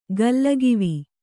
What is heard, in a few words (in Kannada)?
"ಗಲ್ಲಗಿವಿ", Kannada